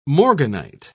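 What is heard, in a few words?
"morganite", British English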